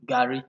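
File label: "garri", Nigerian Pidgin, male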